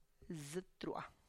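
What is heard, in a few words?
"zItrua", Tlacochahuaya Zapotec; my Chin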